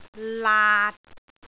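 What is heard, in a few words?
"laat6", Cantonese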